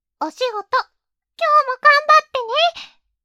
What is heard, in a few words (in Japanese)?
励ましボイス